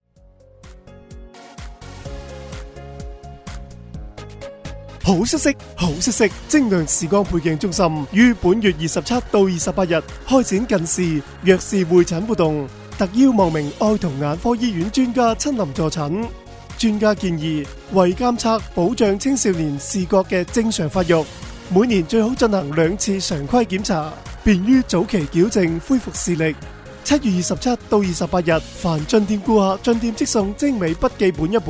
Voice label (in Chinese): 粤男 促销; 晶亮